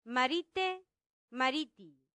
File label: "marite mariti", latin; santillana